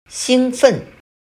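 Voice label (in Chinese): "兴奋" Mandarin Chinese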